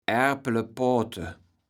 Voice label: "Aerplepoate", platt